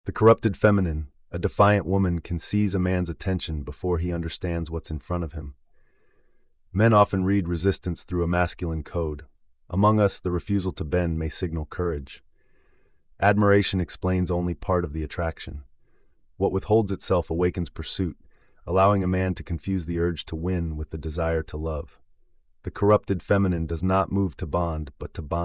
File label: narration